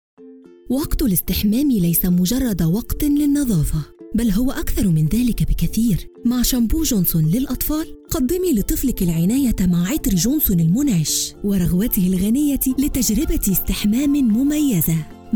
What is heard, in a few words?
arabic commercial; Arabisch; Ägypten